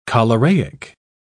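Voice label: "choleraic", British English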